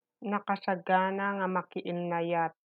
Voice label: Ilocano